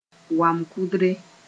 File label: "wam-kʋdre", Mooré